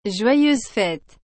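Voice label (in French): "Joyeuses fêtes", French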